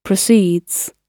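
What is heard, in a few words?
"proceeds", British English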